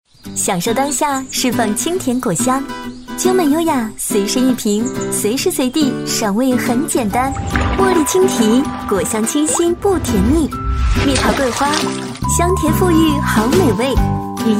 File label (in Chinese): 女, 广告配音, 燕窝饮 清新活力